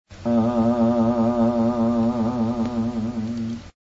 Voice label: vibrato; PEA-Sintesis de habla